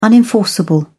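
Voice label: "unenforceable", British English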